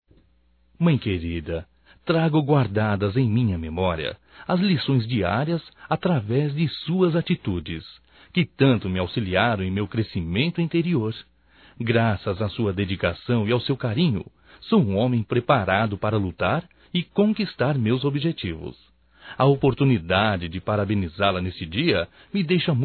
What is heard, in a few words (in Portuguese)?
Aniversário Mãe Masculino